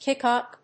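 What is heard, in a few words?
"kick up", English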